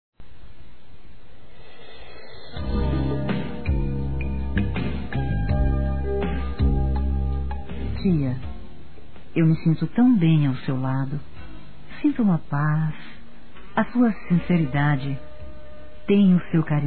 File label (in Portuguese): Aniversário Tia Feminino; Aniversários; Aniv Familiar